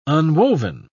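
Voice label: "unwoven", English, British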